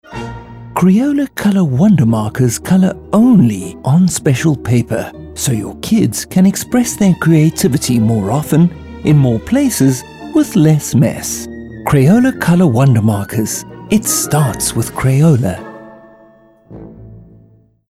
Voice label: Colour Marker Ad; Crayola